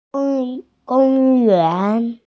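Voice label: "park", Mandarin Chinese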